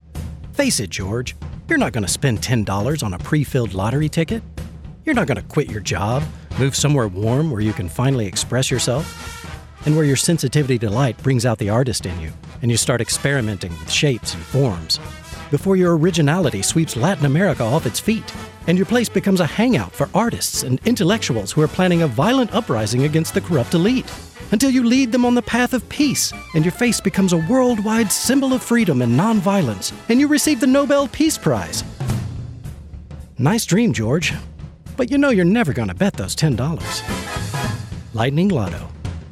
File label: commercial vo